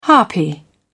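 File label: "harpy", British English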